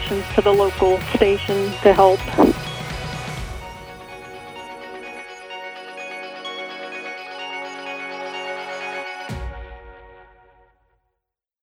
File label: Catholic Radio Testimonial